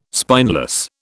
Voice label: "spineless", British